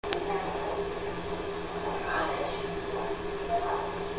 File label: whispering